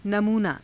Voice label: "namuunaa", Hindi